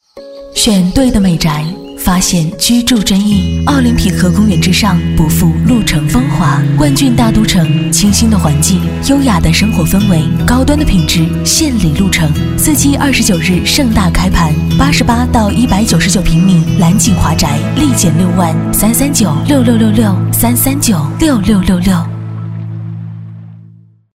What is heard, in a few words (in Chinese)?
女-广告-房地产(大气知性)